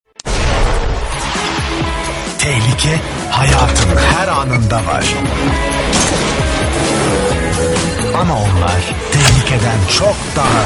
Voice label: fragman tanitim, seslendirme

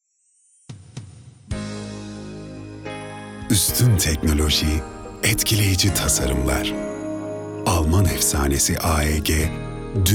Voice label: sicak samimi

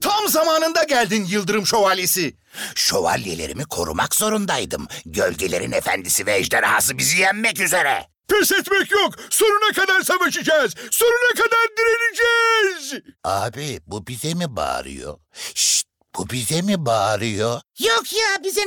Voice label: animatik karakter

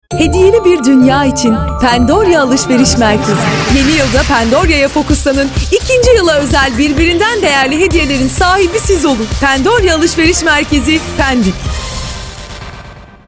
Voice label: Dinamik

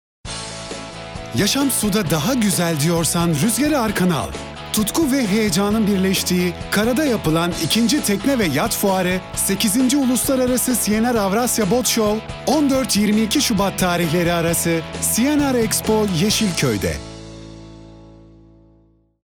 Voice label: enerjik tempolu, seslendirme

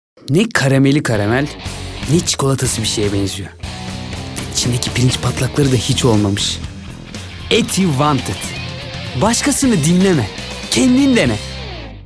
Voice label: enerjik esprili; seslendirme

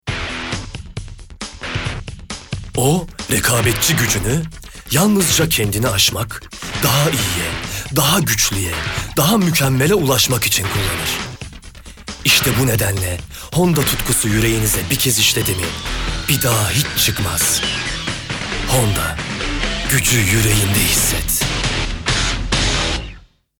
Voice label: guclu otoriter, seslendirme

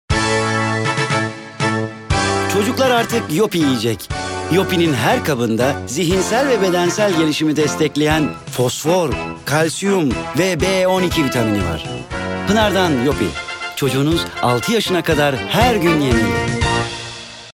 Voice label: enerjik tempolu, seslendirme

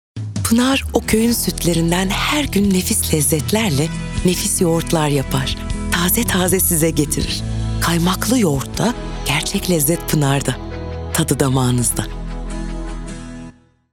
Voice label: sicak sakin

